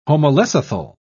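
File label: "homolecithal", British English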